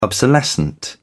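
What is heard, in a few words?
"obsolescent", British English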